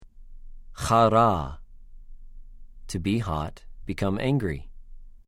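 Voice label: "חָרָה", Hebrew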